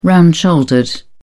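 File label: "round shouldered", British English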